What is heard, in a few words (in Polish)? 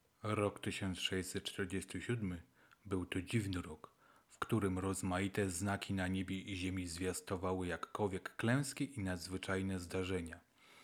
pop filtr i gabka